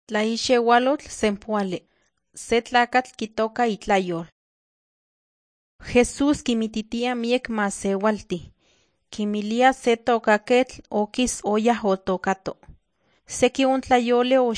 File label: nahuatl guerrero tlapa; the bible league nt drama